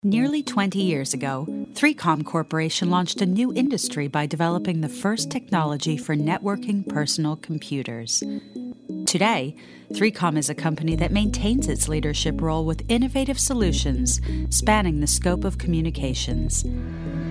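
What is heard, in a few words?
American English, Corporate; GoLocalise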